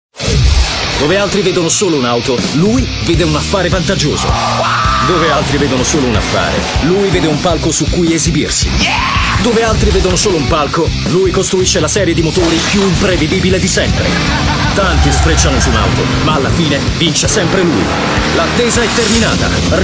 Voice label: fast loud; motortrend

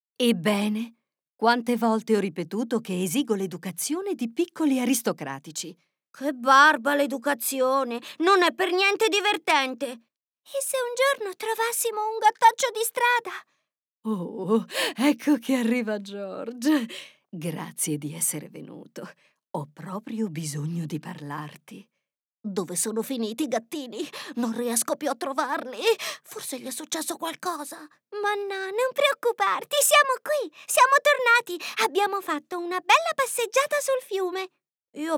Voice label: Fairy Tale Multi Character

